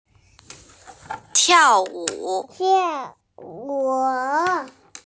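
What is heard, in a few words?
"tiao4wu3", Mandarin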